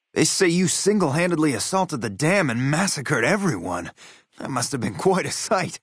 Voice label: maleadult greeting